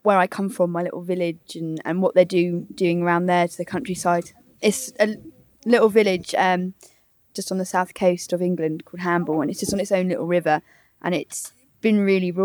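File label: Birmingham Plymouth Female student monologue; British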